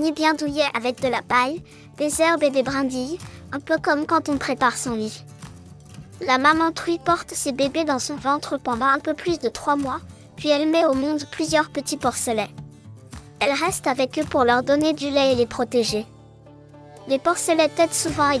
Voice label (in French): cochon enfant